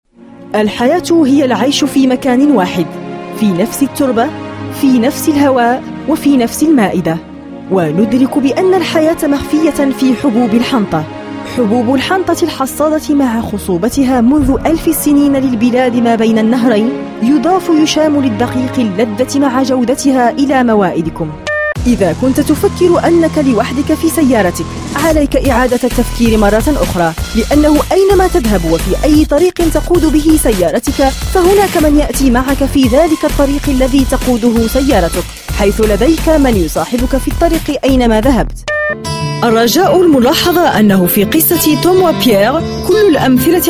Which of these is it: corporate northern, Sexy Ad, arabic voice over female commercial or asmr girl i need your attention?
arabic voice over female commercial